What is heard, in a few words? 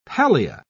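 "pallia", British English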